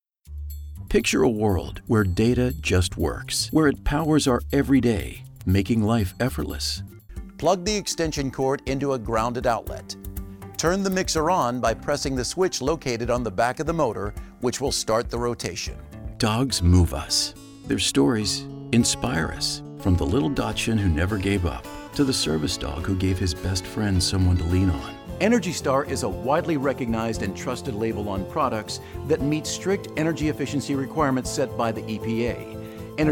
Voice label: Explainer